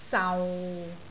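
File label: "sau6", Cantonese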